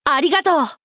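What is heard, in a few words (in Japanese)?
「ありがとう！」, 男の子 王道系少年主人公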